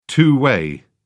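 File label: "two-way", English, British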